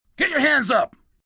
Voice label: Get Your Hands Up, Police, male: 'Get your hands up', (human voice speaking); Talking Sound Effects